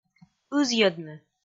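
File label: "ызйӧдны", Komi, female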